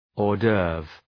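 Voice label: "hors d'oeuvre", English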